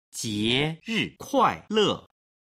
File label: "jieri kuaile", Chinese